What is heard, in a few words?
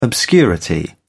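"obscurity", British English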